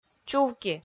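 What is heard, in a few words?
"чувки", Komi, female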